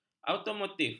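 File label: "automotif", Malay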